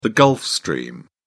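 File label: "gulf stream", British English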